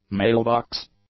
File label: "mailbox", English; nouns